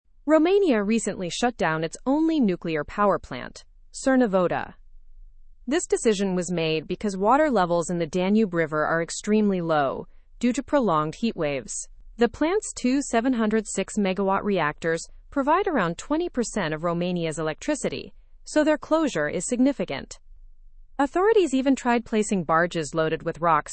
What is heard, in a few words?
learn english through news upper intermediate; heat forces shutdown of romania s nuclear plant